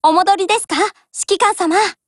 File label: HELLO JP; MP40